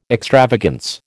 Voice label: "extravagance", American

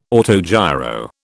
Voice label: "autogiro", British

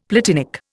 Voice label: "platinic", Indian; Dictionary

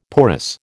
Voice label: "porous", American

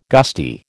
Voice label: "gusty", American

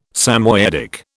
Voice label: "Samoyedic", British

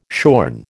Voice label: "shorn", American

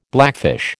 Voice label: "blackfish", American

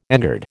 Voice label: "engird", American

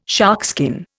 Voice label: "sharkskin", Indian

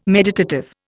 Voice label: "meditative", Indian

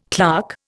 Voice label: "clerk", Indian

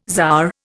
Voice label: "czar", Indian

